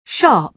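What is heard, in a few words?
"sharp", British English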